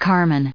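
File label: "carmine", US English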